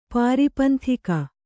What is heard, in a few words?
"ಪಾರಿಪಂಥಿಕ", Kannada